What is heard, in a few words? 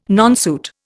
"nonsuit", Indian